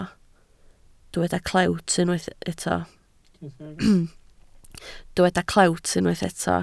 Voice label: "clewt", Welsh

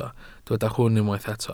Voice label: "hwn" Welsh

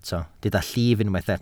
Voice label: "llif", Welsh

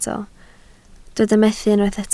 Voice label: "methu", Welsh

